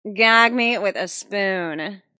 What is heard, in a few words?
Wmn Sys Gag Me Spn, Woman Says Gag Me With The Spoon; A teen girl says; Talking Sound Effects